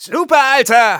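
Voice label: nice shot, German; Scout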